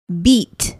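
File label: "beet", English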